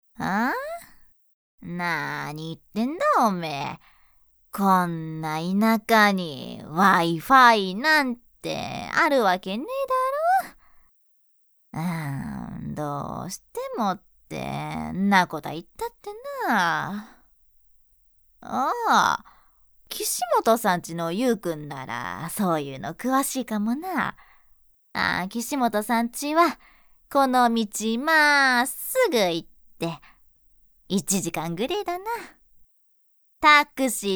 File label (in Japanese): ボイスサンプル（田舎のおばあちゃん）